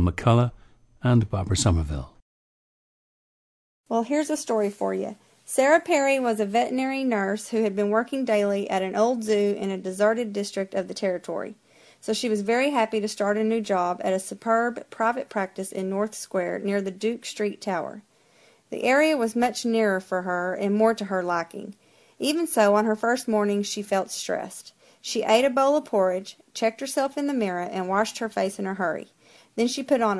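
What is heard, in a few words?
texas, International Dialects of English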